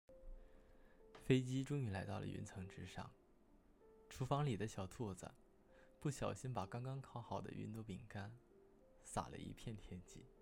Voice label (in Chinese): 童话小故事